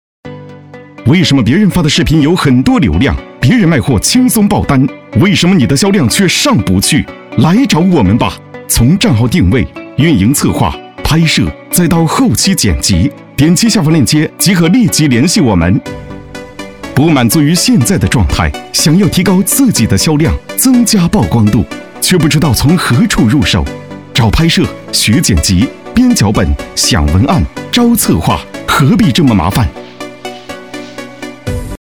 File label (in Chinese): C男 抖音 带货广告